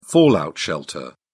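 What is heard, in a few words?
"fallout shelter", English, British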